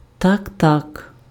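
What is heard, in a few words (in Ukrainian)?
"так так", Ukrainian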